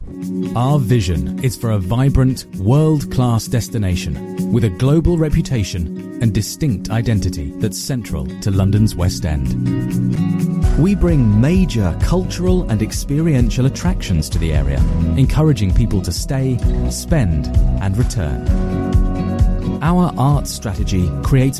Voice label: Corporate